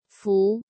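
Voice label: "Fú", Chinese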